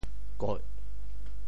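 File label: "koih4", Teochew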